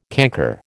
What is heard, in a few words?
"canker", American